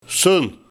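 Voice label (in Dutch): "Sun", dialect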